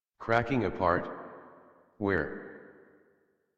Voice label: Adult, en, Dialog